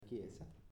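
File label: "Chiesa", Italian, male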